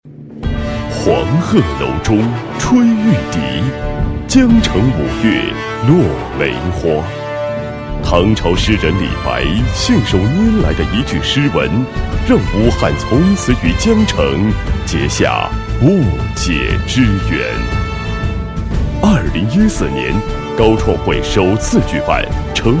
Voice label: 男-演讲词, 配音案例; 2015年武汉高创会演讲词

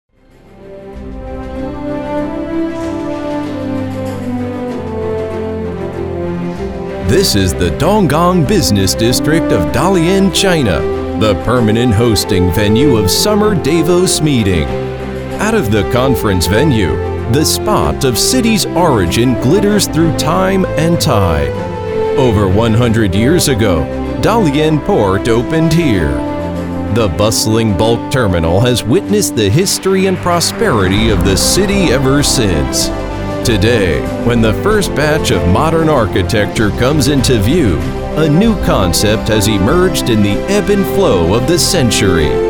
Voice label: 专题, 大气

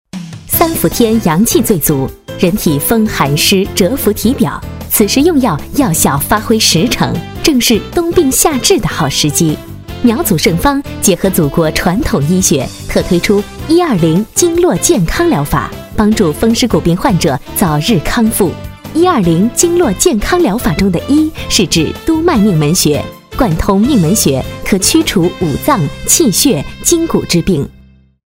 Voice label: 女 专题 力度, 国语女声配音; 药物; 苗祖圣方

